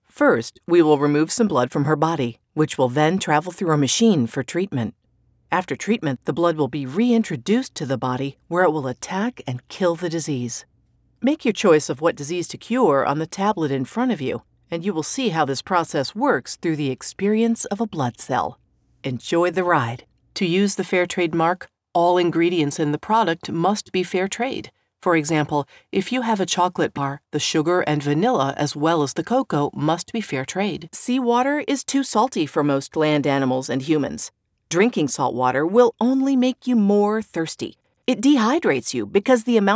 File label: e-Learning